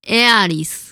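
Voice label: "Aerith", Japanese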